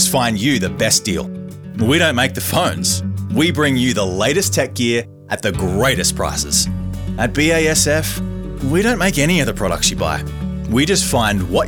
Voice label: Commercial Voice